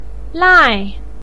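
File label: "lai", Mandarin pinyin, tone 4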